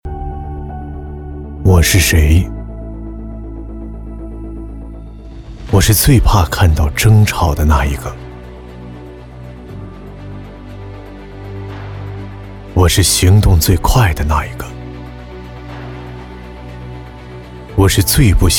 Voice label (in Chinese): 男 微电影 独白政府微电影